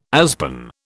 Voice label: "aspen", British